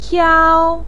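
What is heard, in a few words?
"piao1", Mandarin pinyin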